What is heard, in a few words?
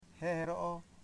"thirteen", Foe